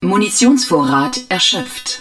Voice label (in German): AMMUNITION DEPLETED, german, hud